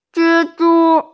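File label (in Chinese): "zhi1zhu1", Mandarin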